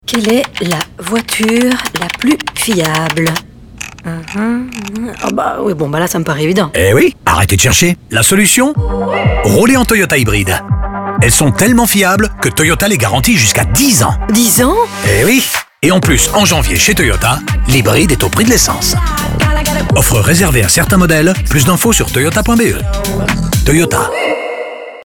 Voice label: Radio, FR; Toyota; Reliability; Crescendo